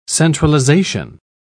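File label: "centralisation", British English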